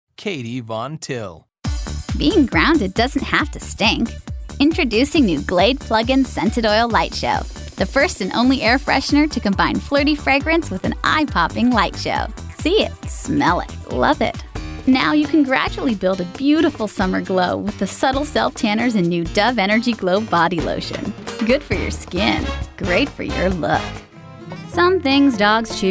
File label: english us f commercial, voice demos